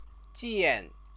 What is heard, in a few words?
"jian", Chinese